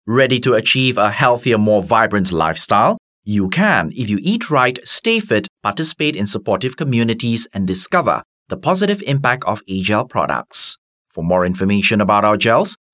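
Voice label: English malay male promo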